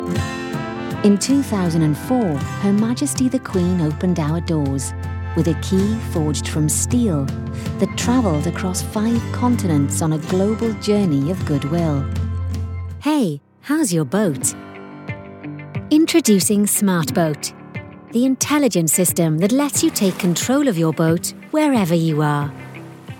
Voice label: corporate